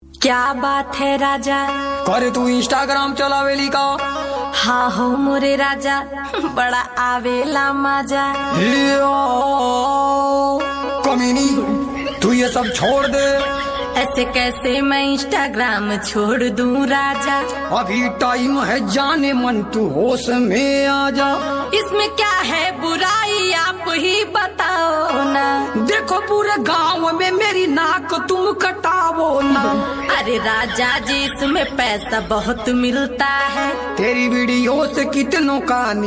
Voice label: Comedy